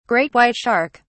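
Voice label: "great white shark", British English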